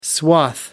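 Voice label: "swath", British English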